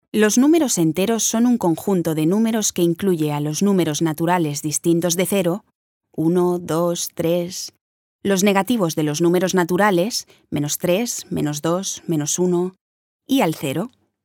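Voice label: Spanish informative; female; spansk-europa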